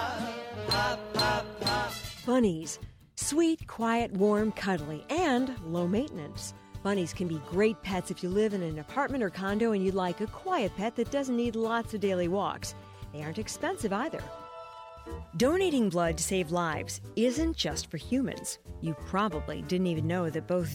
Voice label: FM news; Animal House